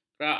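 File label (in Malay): "kerak", Malay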